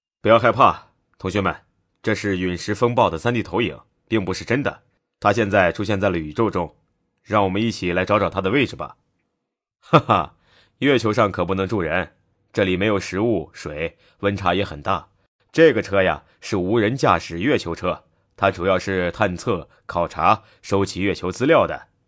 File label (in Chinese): 男66号角色 中年音